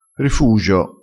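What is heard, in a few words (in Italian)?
"rifugio", dialettale, crespinese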